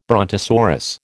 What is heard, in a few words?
"brontosaurus", American